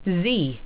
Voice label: "z", English